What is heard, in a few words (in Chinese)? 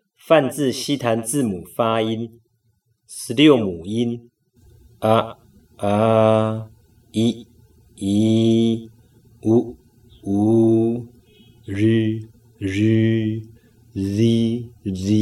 梵文16母音; 梵音字母發音